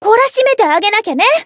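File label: Voice encounter Japanese; tsukiha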